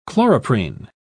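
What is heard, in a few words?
"chloroprene", English, British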